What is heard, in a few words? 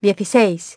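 "sixteen", English; iOS 4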